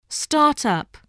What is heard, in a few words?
"start-up", voice